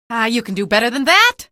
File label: evac casualty, female; video games; npc; source engine; episodic; episode 1